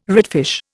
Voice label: "redfish", Indian